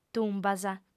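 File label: "tumbas", female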